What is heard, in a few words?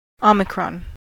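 "omicron", American English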